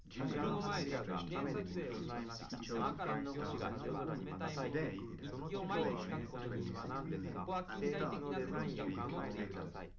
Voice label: male live rec 1m mix; SASSEC07

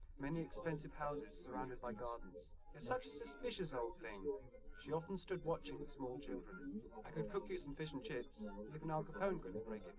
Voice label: male, live rec, 130ms, 1m

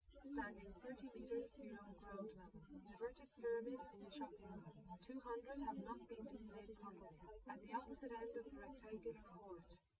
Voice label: female, live rec; SiSEC08; SiSEC underdetermined

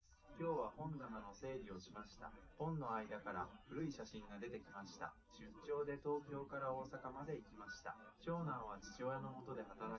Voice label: test male live rec; SiSEC11; underdetermined

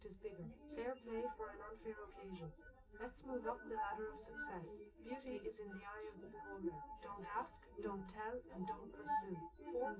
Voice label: female, live rec, 250ms, 1m

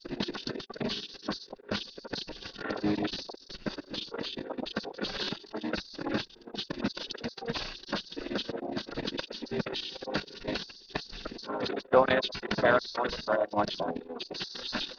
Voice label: mix mus sp M

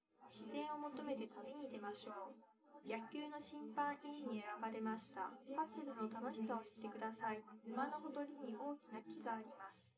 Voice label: female, live rec

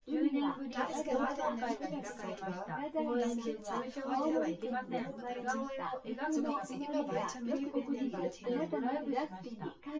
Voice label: female synthconv 250ms 5cm; SiSEC08; SiSEC underdetermined

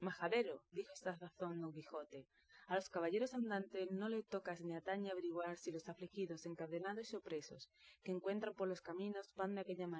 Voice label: female inst sim; SiSEC08; SiSEC underdetermined

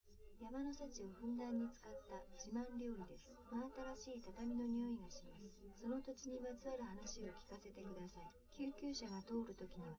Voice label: test female live rec; SiSEC11; underdetermined

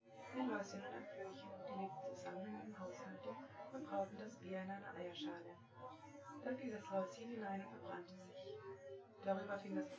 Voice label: female synthconv 5cm; SASSEC07

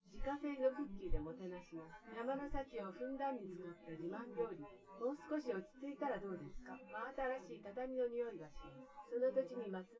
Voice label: female, synth conv, 130ms, 5cm; SiSEC10; underdetermined

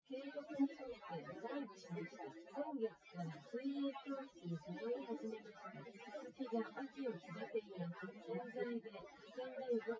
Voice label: test female synthconv 130ms 1m src; SiSEC08; SiSEC underdetermined

